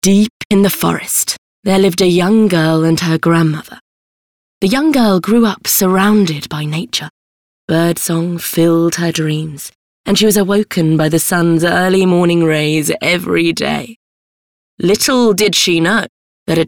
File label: audiobook sample